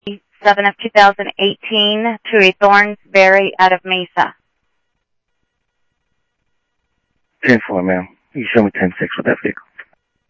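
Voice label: Sheriff Lake Patrol, scanner audio; MCSO Lakes 1; ThompsonPeakScot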